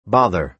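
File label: "bother", American English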